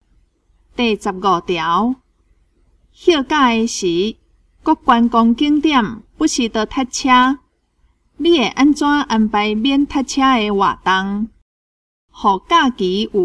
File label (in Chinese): 口語表達, 閩南語; 閩南語語言能力認證; 金安咱來考C